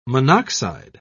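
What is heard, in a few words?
"monoxide", British English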